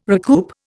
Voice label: "recoup", Indian